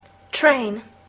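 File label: "train", English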